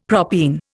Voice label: "propene", Indian; Dictionary

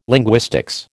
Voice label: "linguistics", American

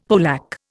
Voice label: "polack", Indian; Dictionary

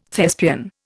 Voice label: "Thespian", Indian

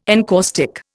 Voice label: "encaustic", Indian

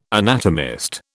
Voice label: "anatomist", British

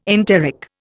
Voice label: "enteric", Indian

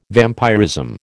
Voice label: "vampirism", American

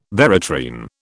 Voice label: "veratrine", British

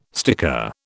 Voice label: "sticker", British

